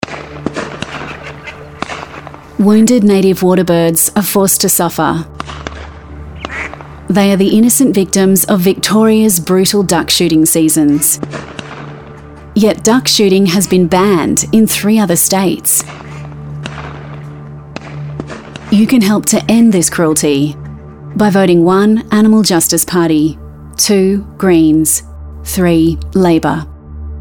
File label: Radio ad